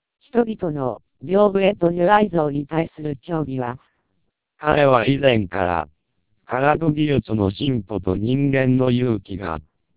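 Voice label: Japanese speech, TWELP 480 bps vocoder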